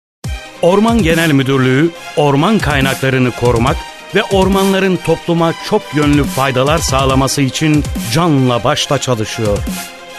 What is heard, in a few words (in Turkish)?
tanitim